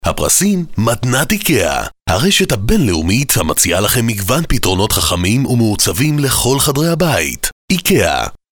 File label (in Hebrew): קריינות לאיקאה